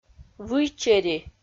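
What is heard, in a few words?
"выйчери", Komi, female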